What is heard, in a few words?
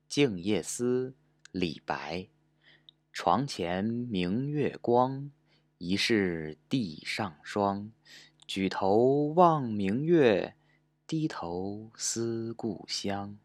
Chinese, Male